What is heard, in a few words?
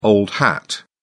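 "old hat", British English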